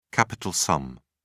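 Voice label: "capital sum", British English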